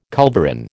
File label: "culverin", American